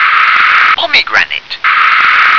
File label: "pomegranate", English